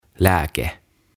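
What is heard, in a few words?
"Lääke", Finnish, "Medicin", Swedish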